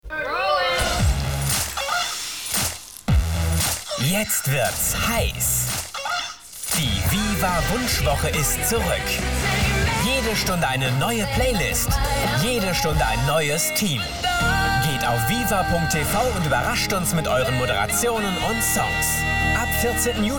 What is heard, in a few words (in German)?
de moderation, deutsch maenner; sprecher